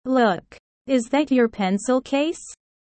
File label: low; studying abroad